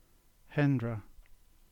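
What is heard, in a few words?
English; Hendra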